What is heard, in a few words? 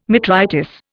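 "metritis", English, Indian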